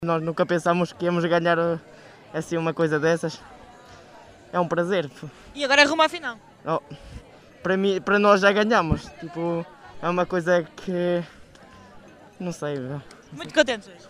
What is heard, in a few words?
vox pop; podence na final